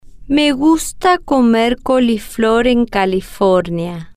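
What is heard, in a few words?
me gusta comer coliflor en california, Spanish, slow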